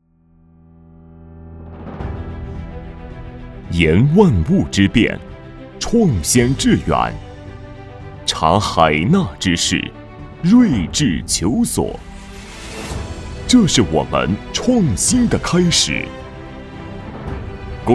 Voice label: 男 宣传片 大气 公司介绍

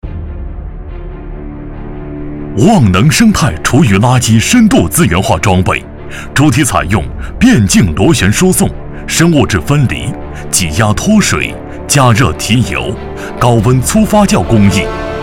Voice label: 男 宣传 生态科技

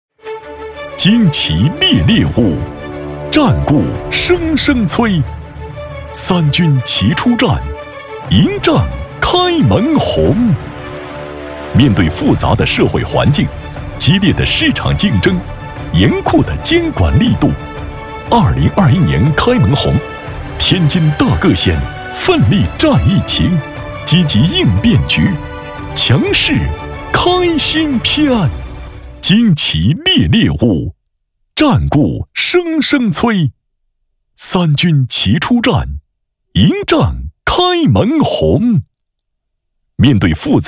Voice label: 男 颁奖类 保险开门红 激昂 大气 力度

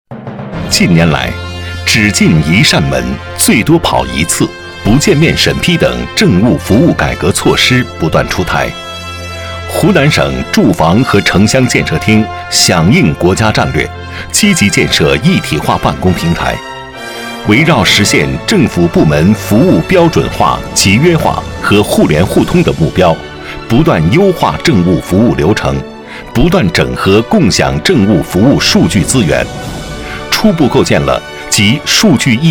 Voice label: 男 专题 政务一体化 干音

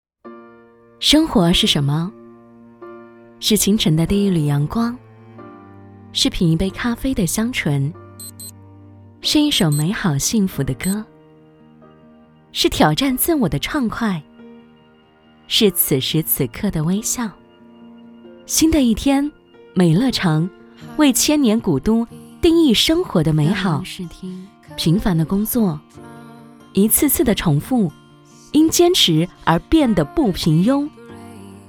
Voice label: 女 独白 干声; 29周年

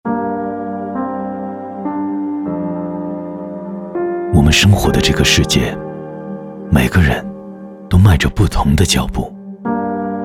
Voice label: 男 品质广告; 脚步

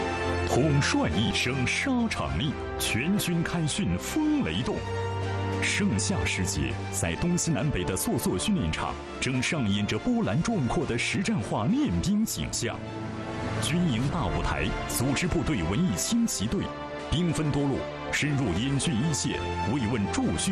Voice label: 男 走进陆军; CCTV7

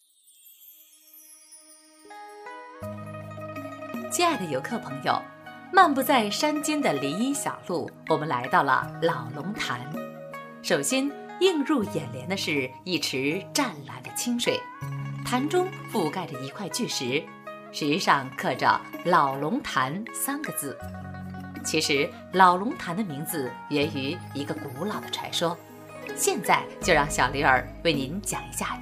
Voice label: 女 旅游稿解说; 老龙潭

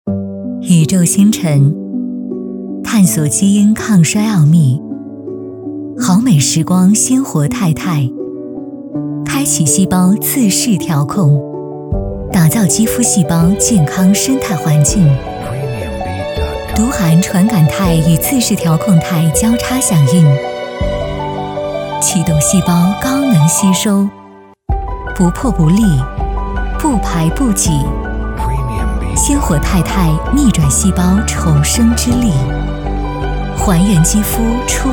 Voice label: 女 化妆品广告; 好美拾光

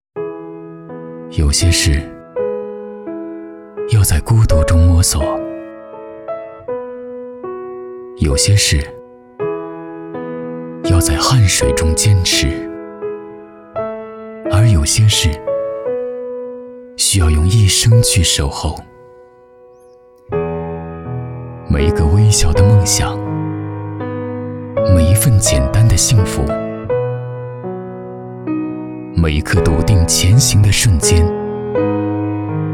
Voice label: 男 文艺清新 物业形象宣传片

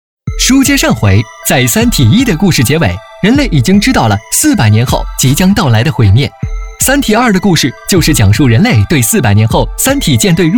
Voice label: 男,故事小说; 三体

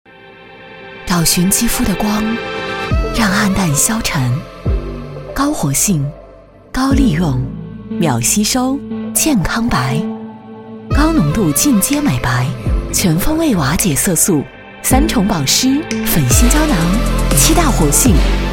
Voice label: 女 广告 美白亮颜保湿精华油; RNW; 如薇美白亮颜保湿精华油

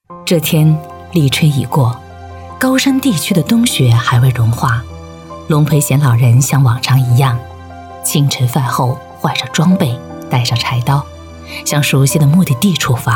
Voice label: 女 非物质文化遗产人物 自然述说

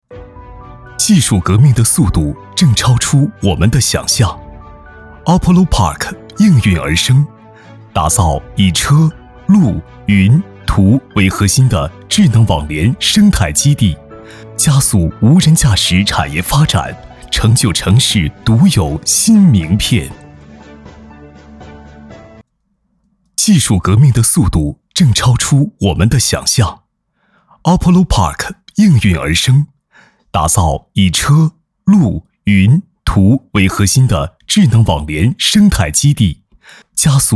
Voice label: 男 宣传片 科技感

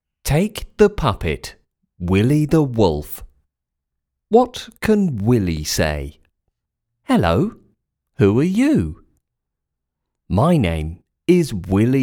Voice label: 儿童英语故事 低沉缓慢

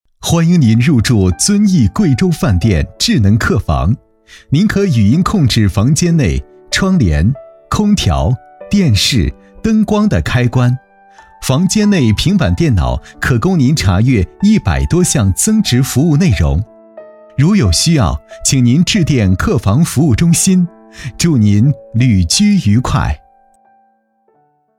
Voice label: 男 彩铃

